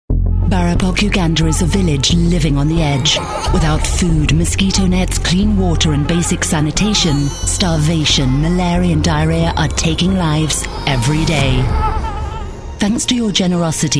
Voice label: national TV commercial, Sexy Informative Versatile Voiceover